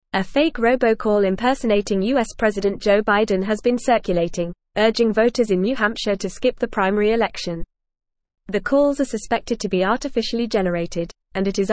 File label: English Newsroom Advanced FAST Reading; Fake Robocall Impersonating Biden Urges Voters to Skip Primar